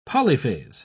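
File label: "polyphase", British English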